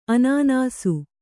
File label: "ಅನಾನಸ", Kannada